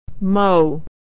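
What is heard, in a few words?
"mow", American English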